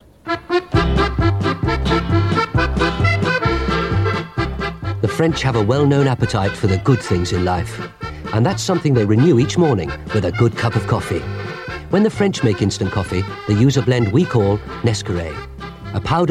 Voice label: Radio Advert; Radio Hallam; Nescore